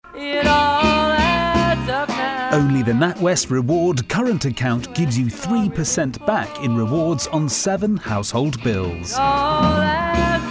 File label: commercial reel